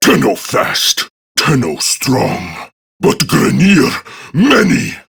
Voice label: Patrol Prime Grineer Male; Tau; Battle Barks